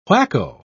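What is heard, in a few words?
"whacko", British English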